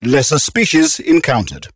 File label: advisor notification alien vessel encountered, xenophobe; Stellaris; ethics